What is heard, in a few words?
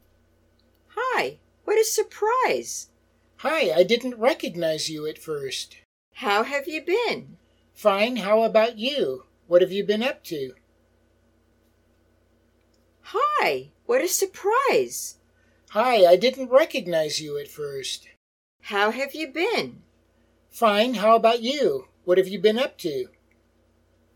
Natural Dialog